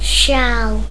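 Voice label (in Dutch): "sjaal", Dutch; tdegames; ktuberling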